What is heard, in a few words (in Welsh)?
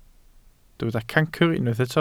"cancr", Welsh